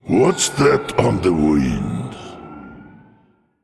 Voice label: Male Elemental Emote Thinking